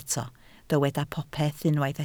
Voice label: "popeth", Welsh

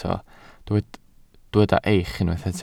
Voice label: "eich", Welsh

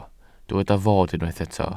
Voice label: "fod", Welsh

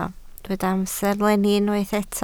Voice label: "amserlenni", Welsh

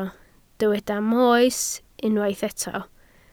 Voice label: "moes", Welsh

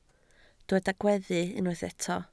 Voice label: "gweddu", Welsh

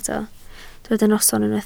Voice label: "noson", Welsh

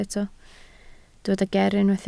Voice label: "ger", Welsh

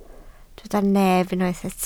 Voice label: "nef", Welsh

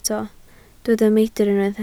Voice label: "meidr", Welsh

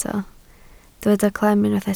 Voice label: "clem", Welsh

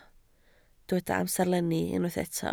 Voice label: "amserlenni", Welsh

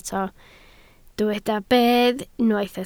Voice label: "bedd", Welsh

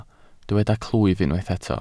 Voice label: "clwyf", Welsh

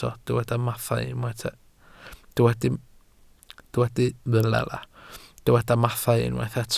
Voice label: "mathau", Welsh

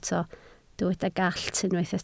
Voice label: "gallt", Welsh

